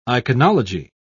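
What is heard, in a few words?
"iconology", British English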